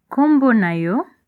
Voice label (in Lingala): name, lingala